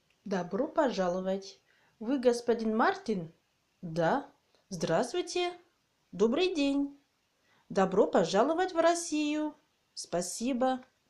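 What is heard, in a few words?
"Dobro pozhalovat", Russian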